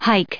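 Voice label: "hike", English; verb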